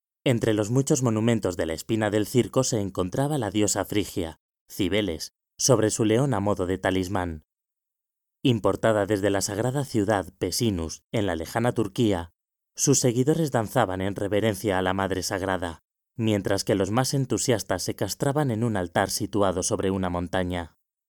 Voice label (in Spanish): Audioguía